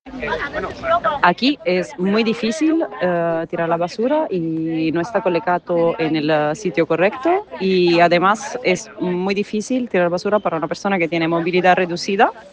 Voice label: Voice message